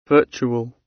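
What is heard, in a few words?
"virtual", British English; Technology; Công nghệ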